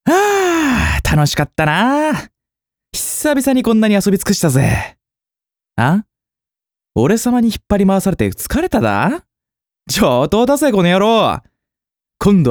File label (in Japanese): 「おやすみ」オレ様